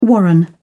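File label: "warren", British English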